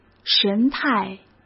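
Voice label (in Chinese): "神态", Mandarin Chinese; hsk; chinese-flashcards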